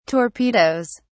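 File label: "torpedoes", English, British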